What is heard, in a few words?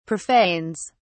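"profanes", English, British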